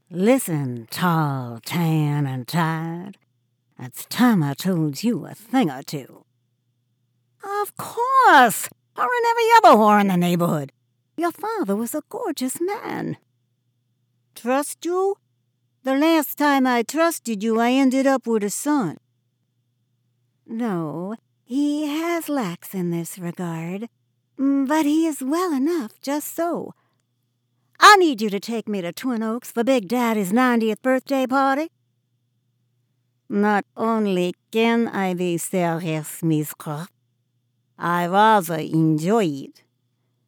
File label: Character Animation